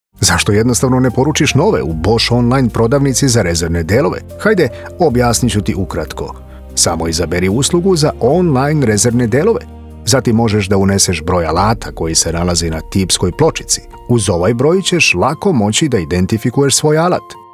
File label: male serbian real voice for video dubbing